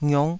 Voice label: "ngiuk5", taiwanese syllables